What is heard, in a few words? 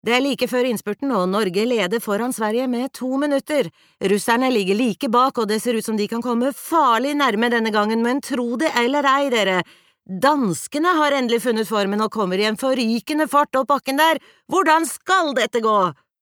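Norwegian dub commentator, female; norsk; kvinne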